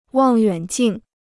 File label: "望远镜", Chinese